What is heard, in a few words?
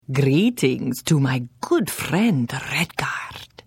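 Hello, Khajiit female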